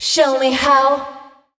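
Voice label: vocal female, Dance Vocals, spoken words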